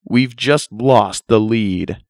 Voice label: red lost lead, announcer; construct